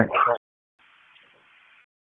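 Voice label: Police District Dispatch, p25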